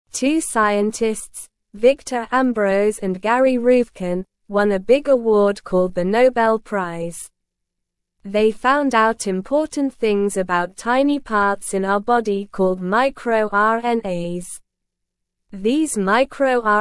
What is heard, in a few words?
English Beginner SLOW Reading; Scientists Win Nobel Prize for Discovering MicroRNAs